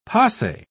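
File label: "pase", British English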